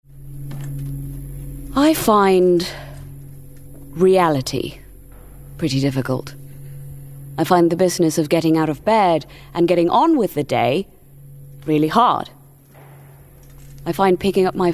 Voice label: Radio drama reel

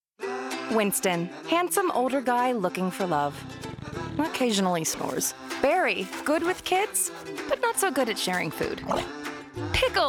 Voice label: Commercial

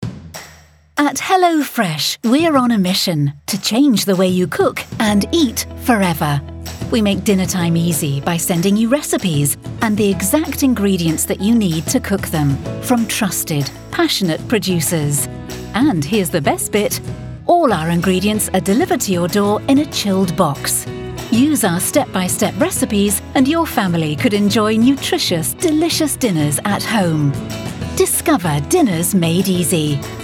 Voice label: Commercial

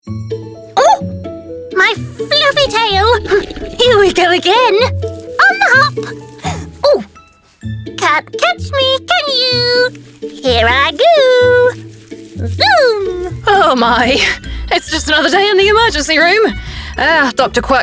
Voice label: Animation

